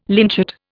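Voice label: "lynchet", Indian English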